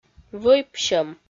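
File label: "вӧӥпсьӧм", Komi, female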